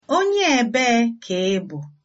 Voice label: where from, igbo